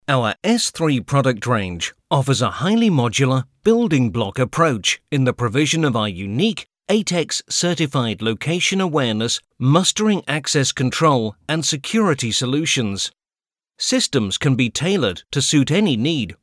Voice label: Corporate, UK; Voice-over demos

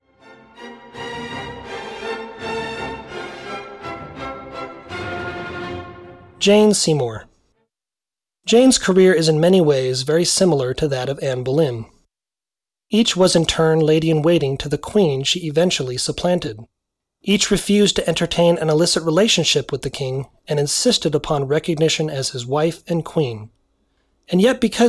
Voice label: Audiobooks

